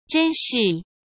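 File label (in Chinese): "珍视", Mandarin Chinese; chinese flashcards; hsk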